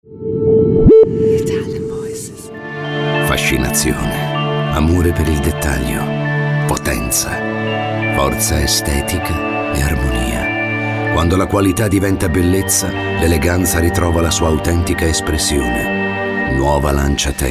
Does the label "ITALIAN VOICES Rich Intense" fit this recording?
Yes